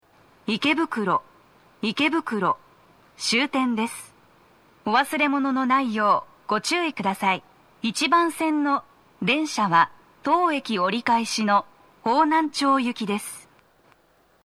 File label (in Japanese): 到着放送 女声; 池袋; 1番線 荻窪・方南町方面; 放送は「方南町行」です。